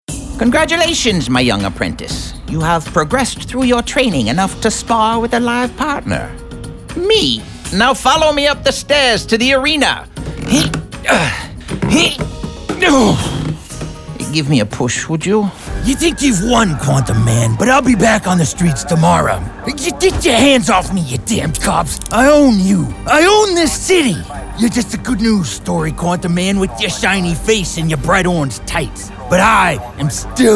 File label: vo character